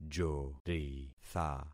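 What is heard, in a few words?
"joritha", concatenated syllables; Affixing experiment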